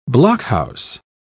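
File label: "blockhouse", British English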